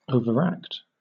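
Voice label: "overact", British English; pronunciations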